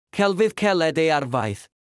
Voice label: Welsh proverb